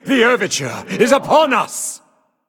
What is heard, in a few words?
Male Ethereal Play